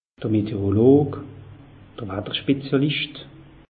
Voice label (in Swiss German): "meteorolog", alsace